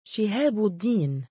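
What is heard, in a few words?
"shihaabuddiin", Arabic, male; given name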